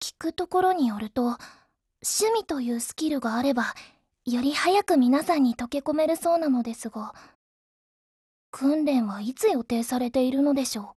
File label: DIALOGUE JP